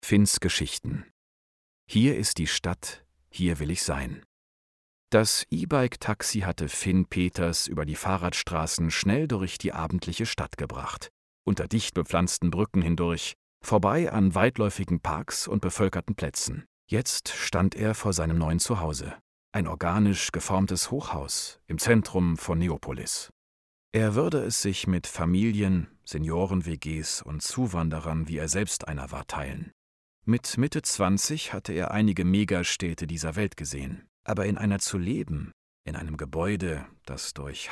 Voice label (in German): kurzgeschichte, deutsch maenner; sprecher